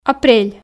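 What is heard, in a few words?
"апрель", Komi, female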